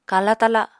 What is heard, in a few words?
"kala-tala", Apatani